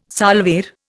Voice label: "salver", Indian